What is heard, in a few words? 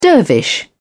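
"dervish", British English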